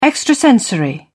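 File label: "extrasensory", British English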